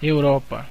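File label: "europe", Brazilian Portuguese; gcompris; geography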